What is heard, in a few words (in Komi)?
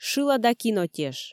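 шылада кинотеш, Komi, female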